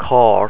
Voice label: "carr", ireland language pronounciation; Monophtongs